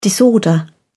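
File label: "disorder", English, British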